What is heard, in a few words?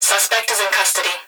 Emergency Human Female Voice Police Dispatch Suspect Is In Custody; emergency effects